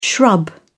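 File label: "shrub", British English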